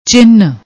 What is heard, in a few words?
"chinh", Punjabi